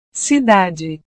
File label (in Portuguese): "cidade", portugues